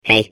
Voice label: babble; portal2